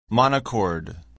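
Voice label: "monochord", English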